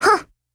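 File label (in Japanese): low mood, Japanese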